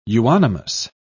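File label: "euonymus", British English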